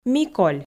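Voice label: "миколь", Komi, female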